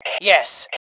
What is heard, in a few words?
Yes, Female; T2 Female 04